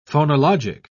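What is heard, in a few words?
"phonologic", British English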